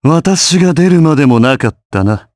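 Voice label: Vox Victory, Japanese; Shakmeh; kingsraid audio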